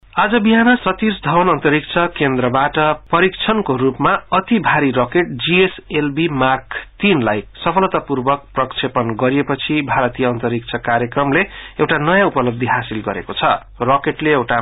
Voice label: Nepali